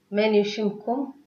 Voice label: name, tigrinya

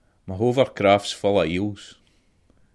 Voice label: hovercraft scots

